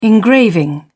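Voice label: "engraving", British English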